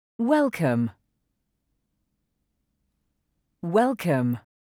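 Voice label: Welcome, English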